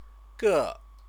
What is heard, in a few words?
"ge", Chinese